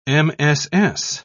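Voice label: "mss", British English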